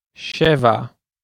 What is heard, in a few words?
Seven hebrew